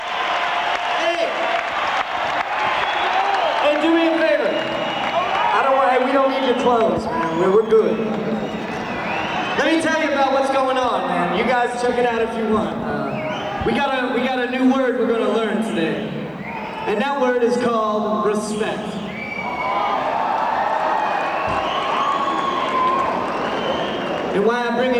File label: Motivational Speech; Rotterdam